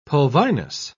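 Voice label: "pulvinus", British English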